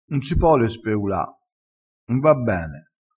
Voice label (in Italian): "speula", crespinese